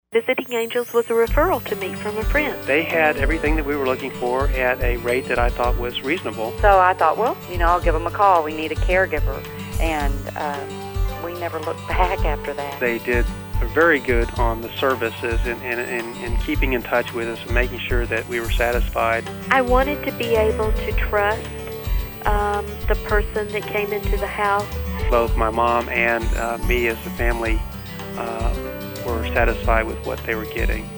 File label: Spot; Visiting Angels Radio